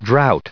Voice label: drought, en-us